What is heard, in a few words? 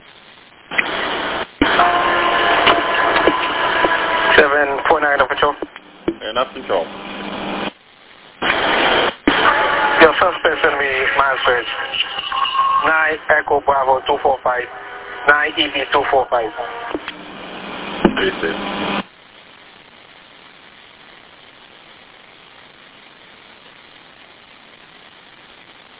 Police Radio